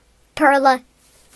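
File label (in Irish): "pearl", Irish; gcompris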